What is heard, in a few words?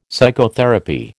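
"psychotherapy", American English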